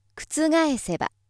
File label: female